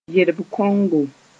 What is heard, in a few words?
"yerb-kongo", Mooré